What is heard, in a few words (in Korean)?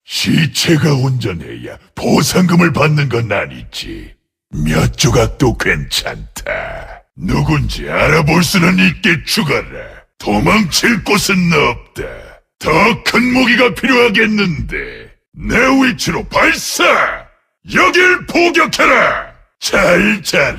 30대 흉폭하고 야성적인 현상금사냥꾼 강력한 거친; WOW 이모나르